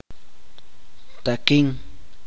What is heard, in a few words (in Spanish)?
seco, chuj